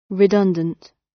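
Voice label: "redundant" British; Technology; Công nghệ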